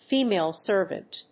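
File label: "female servant", English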